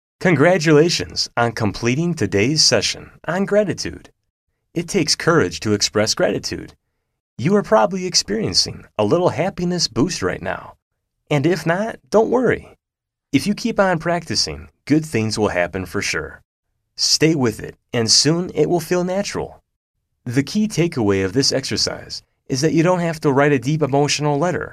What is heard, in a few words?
online course sample